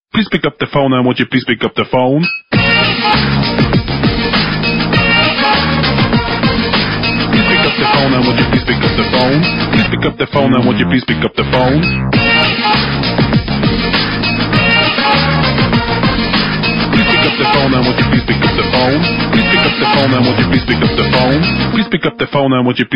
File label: Please Pickup The Phone Ringtone